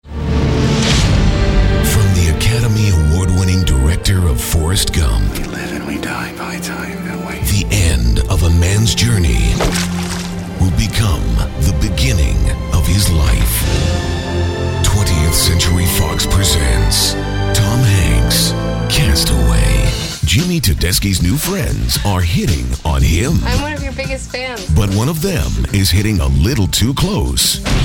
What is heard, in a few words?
Movie Trailer